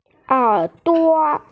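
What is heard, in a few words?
"er3duo5", Mandarin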